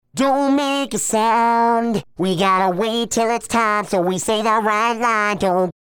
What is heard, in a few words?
Verse Distressed; SouthPark